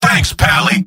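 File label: thanks, robot; mvm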